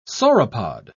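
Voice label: "sauropod", English, British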